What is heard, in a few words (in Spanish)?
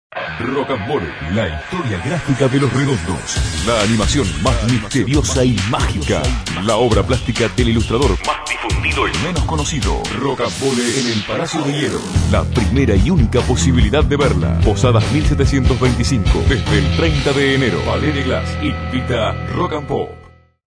spot de radio; rocambole